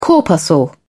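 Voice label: "corpuscle", British English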